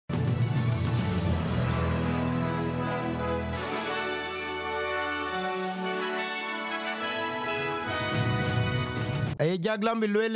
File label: dinka, podcast; SBS Dinka Flash News 20/06/2023; Jacqui Lambie; Afghanistan